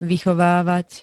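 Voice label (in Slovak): "vychovávať", Slovak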